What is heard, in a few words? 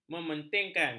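"mementingkan", Malay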